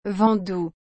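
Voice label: "vent doux", French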